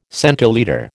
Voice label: "centilitre", American